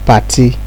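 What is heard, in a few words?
"party", Nigerian Pidgin, male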